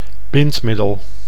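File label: "bindmiddel", Dutch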